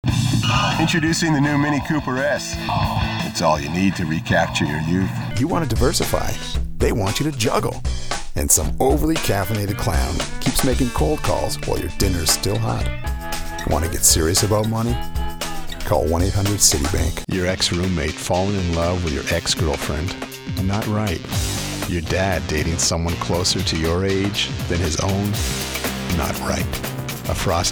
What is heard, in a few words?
Commercial